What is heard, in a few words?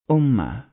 "Ummah", Arabic